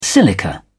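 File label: "silica", British English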